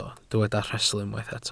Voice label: "rhestl", Welsh